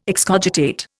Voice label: "excogitate", Indian